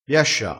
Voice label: "biascia", vocabolario dialettale crespinese

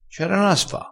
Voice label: "a sfa", Crespinese

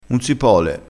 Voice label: "pole", crespinese

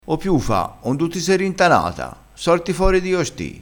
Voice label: "rintanassi", crespinese

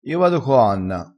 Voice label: "co", crespinese

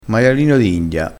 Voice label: "maialino d india", crespinese, vocabolario dialettale

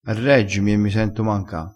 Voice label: "manca", vocabolario dialettale

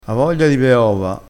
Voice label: "ha voglia di be ova", crespinese, vocabolario dialettale

